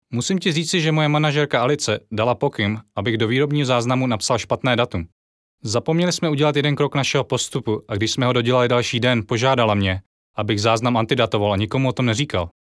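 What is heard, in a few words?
Czech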